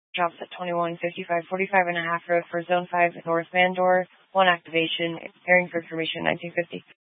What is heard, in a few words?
Sheriff Dispatch